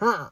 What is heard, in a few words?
villager hurt; Minetest; VoxeLibre; mobs mc